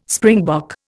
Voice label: "springbok", Indian

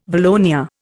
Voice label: "valonia", Indian

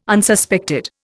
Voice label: "Unsuspected", Indian

